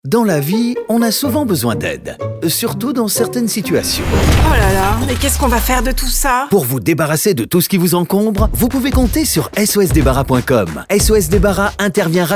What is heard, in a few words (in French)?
annonce audio; sos debarras